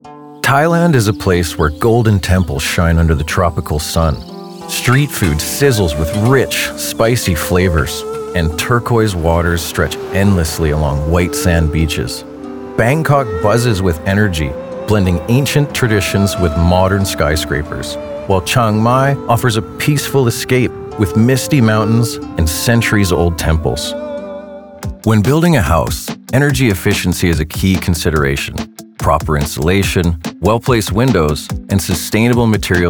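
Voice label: Male Voice Over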